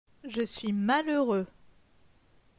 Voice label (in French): "je suis malheureux", francais